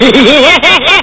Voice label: he he ha ha ha ha; krustys fun house sms